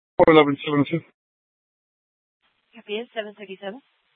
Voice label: Mesa PD Central Dispatch, Scanner